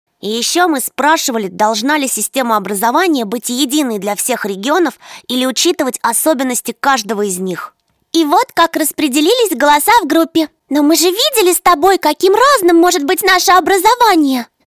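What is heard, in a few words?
girl boy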